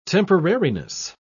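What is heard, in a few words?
"temporariness", British English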